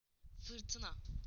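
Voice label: "storm", Turkish; PictureDictionary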